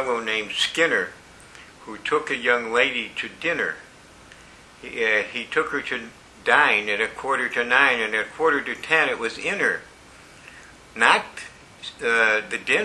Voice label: english joke